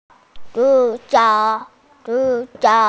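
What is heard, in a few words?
"zu2qiu2", Mandarin Chinese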